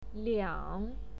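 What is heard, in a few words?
"liang3", Mandarin Chinese pinyin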